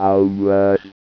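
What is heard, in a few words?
"hours", English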